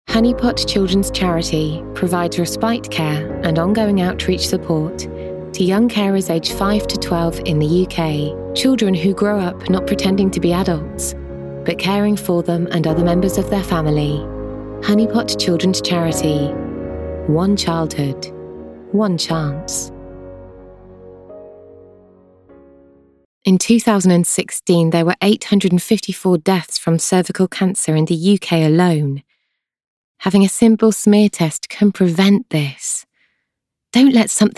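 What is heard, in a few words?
Appeal, Female Voice Over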